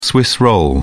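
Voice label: "swiss roll", British English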